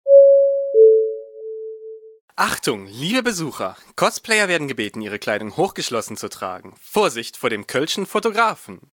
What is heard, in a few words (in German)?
durchsage